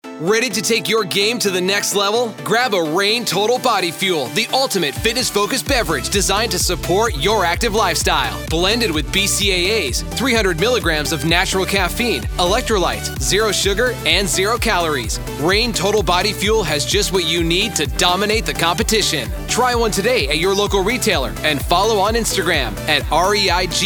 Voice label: male

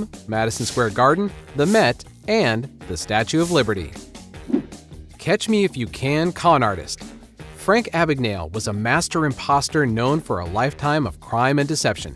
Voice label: fraud explainer

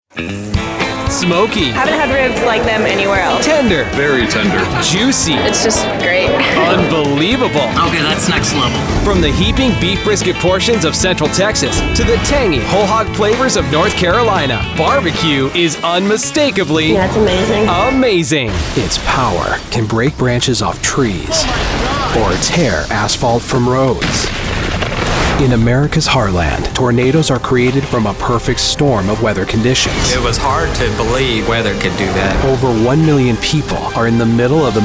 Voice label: narration

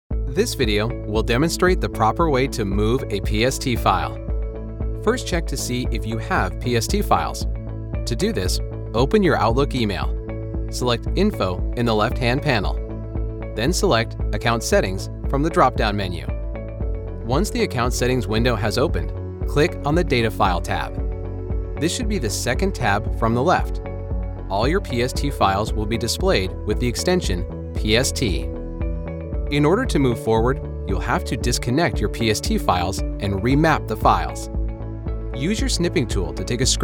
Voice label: elearning

